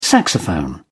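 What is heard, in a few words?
"saxophone", English, British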